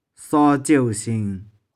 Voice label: "sau3ciou2shing1", 玉環

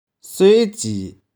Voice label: "soe1ci1", 玉環

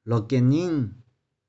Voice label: "loh4kih4ning6", 玉環

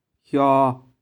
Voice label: "hiau3", 玉環

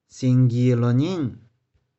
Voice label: "shing1gi1loh4ning6", 玉環

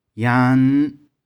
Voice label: "yang1n5", 玉環

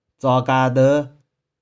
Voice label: "tsau1ka3deu5", 玉環

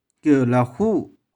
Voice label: "kieu2leh4hu6", 玉環

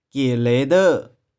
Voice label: "kie2le1deu1", 玉環

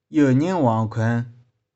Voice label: "ieu2ning6wong1khueng5", 玉環

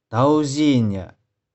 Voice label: "dou3zhie1nih4", 玉環

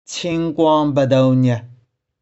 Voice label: "ching1kuong1beh4dou3nih4", 玉環

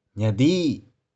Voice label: "nih4di6", 玉環

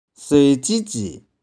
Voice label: "soe1ci1ci1", 玉環

